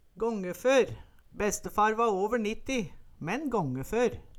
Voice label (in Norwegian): "gångefør", Numedalsmål; Gångfør vert og bruka.